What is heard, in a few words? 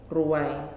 "Traditional Basket", Nalik